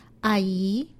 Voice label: "ayi", Chinese; Survival Chinese

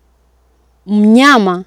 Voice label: "mnyama", Swahili

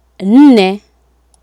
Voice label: "nne", Swahili

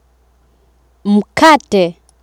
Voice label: "mkate", Swahili

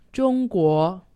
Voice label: "zhongguo", Chinese; Survival Chinese

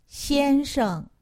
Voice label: "xiansheng", Chinese; Survival Chinese

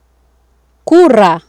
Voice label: "kura", Swahili

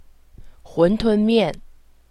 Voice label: "huntun mian", Chinese; Survival Chinese

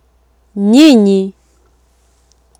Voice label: "nyinyi", Swahili

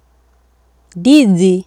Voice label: "ndizi", Swahili

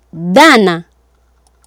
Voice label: "dhana", Swahili

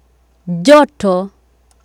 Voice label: "joto", Swahili

